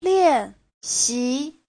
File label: "lian4xi2", chinese; 练习; (danh từ) bài tập; Giáo trình chuẩn HSK3